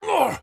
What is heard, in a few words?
Hurt; Superman